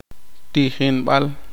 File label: poqomchi; Escuela